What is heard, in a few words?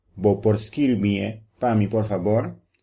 write papiamento